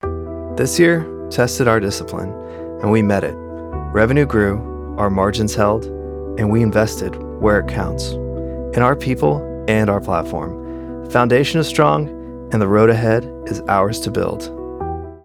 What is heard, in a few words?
corporate investor annual report; steady assured